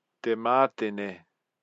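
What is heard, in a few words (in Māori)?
"te matene", Māori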